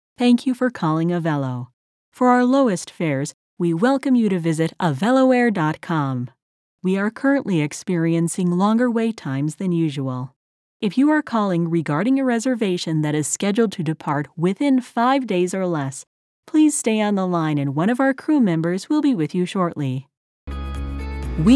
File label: Message on Hold